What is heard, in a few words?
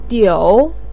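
"diu" third tone, Mandarin Chinese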